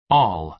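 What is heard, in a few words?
"all" American English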